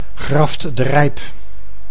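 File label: "Graft-De Rijp", Dutch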